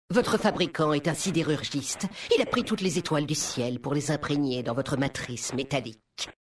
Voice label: VF Homme Flirt; Mécagnome; Siderurgiste

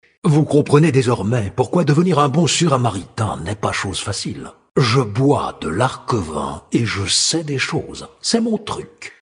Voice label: Male Blague; Sacrenuit

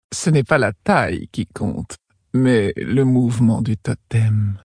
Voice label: Female Flirt; Tauren Haut Roc; Mouvement Du Totem

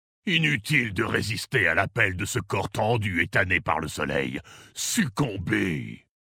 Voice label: VF Male Flirt; Orc Maghar; Succombez

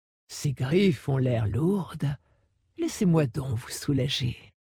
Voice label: Female Flirt; GriffesLourdes; Dracthyr

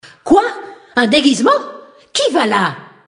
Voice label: Female; Sacrenuit; Blague

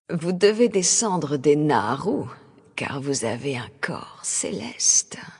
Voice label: Female Flirt; Draenei Sancteforge; Corps Celeste

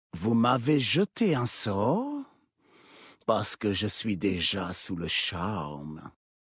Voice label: Homme Flirt; Troll Zandalari; Sort

